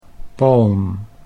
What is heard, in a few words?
"palm", Dutch